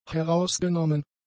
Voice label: "herausgenommen", German; adjectives